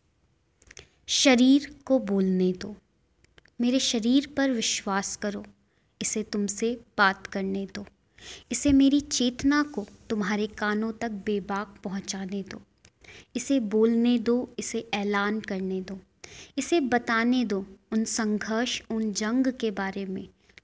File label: hindi